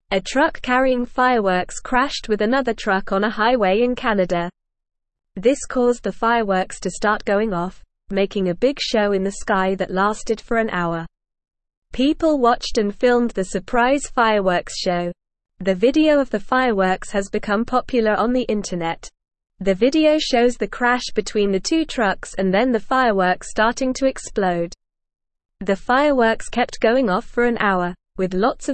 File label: English Lower Intermediate NORMAL Reading; Cars Crash and Fireworks Make Pretty Show; English Newsroom